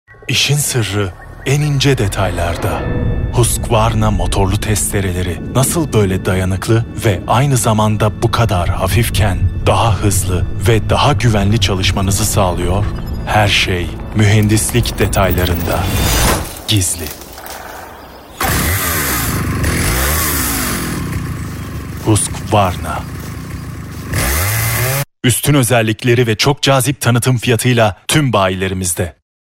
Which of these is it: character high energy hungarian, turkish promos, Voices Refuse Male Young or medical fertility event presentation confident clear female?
turkish promos